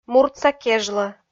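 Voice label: "муртса кежлӧ", Komi, female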